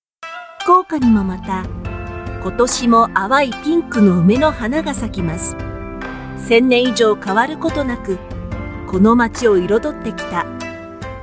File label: Japanese Travel